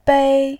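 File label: "bei", Mandarin Chinese, tone 1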